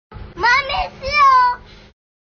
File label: Mummy see o Comedy; Comedy sound effect